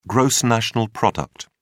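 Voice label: "gross national product", British English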